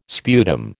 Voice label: "sputum", American